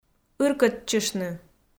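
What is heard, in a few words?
"ыркӧдчыштны", Komi, female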